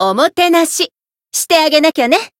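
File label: encount, Japanese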